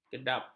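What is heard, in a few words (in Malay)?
"kedap", Malay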